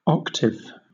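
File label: "octave", British English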